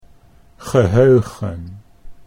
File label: "geheugen", Dutch